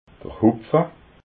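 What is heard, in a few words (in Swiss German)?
"hupfa", Alsatian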